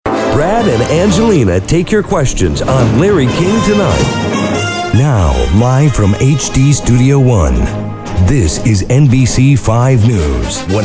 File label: TV Imaging